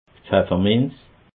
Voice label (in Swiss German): "pfefferminz", alsace